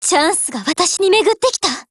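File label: BLACK ACTION, Japanese; GF Alarm; AR15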